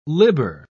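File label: "libber", British English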